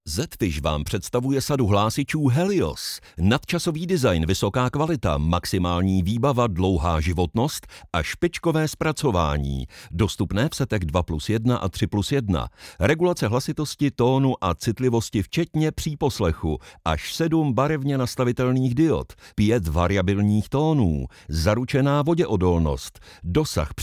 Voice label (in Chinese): 捷克语男深沉磁性